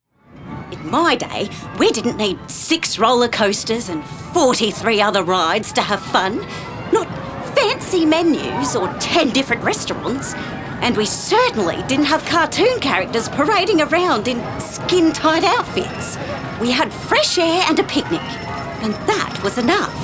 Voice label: Commercial AU; accents characters age voice samples; MOVIEWORLD

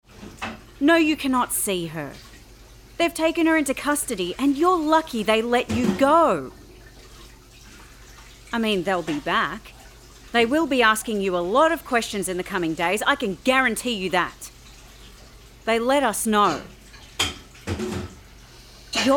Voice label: Mother AU Adult F, australian voice over; The Dragoning; Sharon; accents characters age voice samples

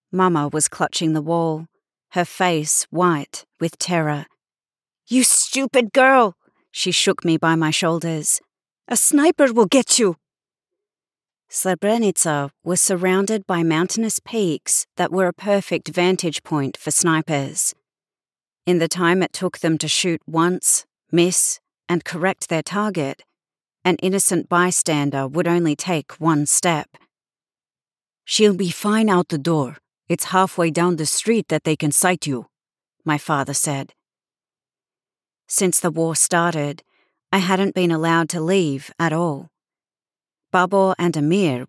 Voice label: Audiobook Fiction War Australian European Bosnian

